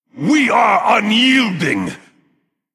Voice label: Male Human Attack